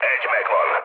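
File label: player killed enemy, mood low, Hungarian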